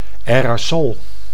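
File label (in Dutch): "aerosol", Dutch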